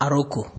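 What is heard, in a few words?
"aroko", Nigerian Pidgin, male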